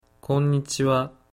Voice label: "konnichiwa", Japanese